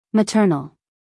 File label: "maternal", US English, female